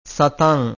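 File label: "satam", Pali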